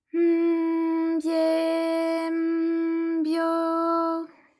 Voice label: by m bye m byo, JPN; ALYS

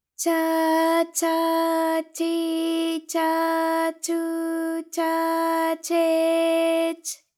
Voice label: cha cha chi cha chu cha che ch, JPN; ALYS

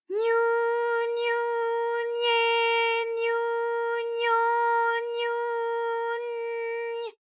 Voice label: nyu nyu nye nyu nyo nyu n ny, A4; ALYS

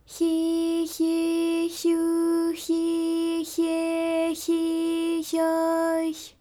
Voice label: hyi hyi hyu hyi hye hyi hyo hy, JPN; ALYS

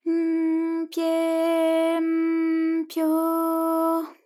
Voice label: py m pye m pyo, JPN; ALYS

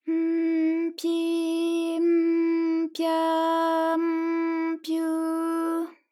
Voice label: py m pyi m pya m pyu, JPN; ALYS

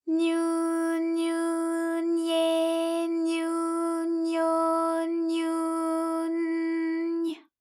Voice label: nyu nyu nye nyu nyo nyu n ny, JPN; ALYS

